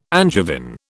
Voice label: "Angevin", British

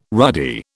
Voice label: "ruddy", British

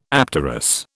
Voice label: "apterous", British

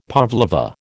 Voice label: "pavlova", American

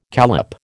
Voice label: "callop", American

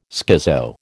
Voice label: "schizo", American

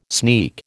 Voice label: "sneak", American

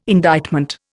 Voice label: "indictment", Indian

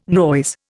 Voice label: "noise", Indian

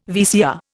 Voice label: "VCR", Indian

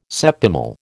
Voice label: "septimal", American

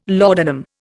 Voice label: "laudanum", Indian

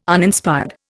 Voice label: "Uninspired", Indian

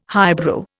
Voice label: "highbrow", Indian

